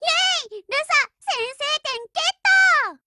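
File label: WIN Japanese, Voice; Lusa 0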